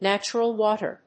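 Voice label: "natural water", English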